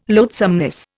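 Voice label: "loathsomeness", Indian English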